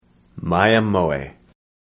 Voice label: male